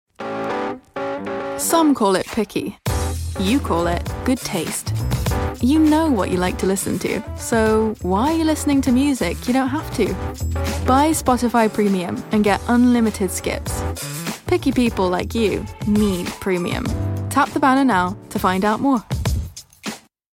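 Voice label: uk, English - England; spotify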